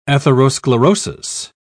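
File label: "atherosclerosis", English, uk British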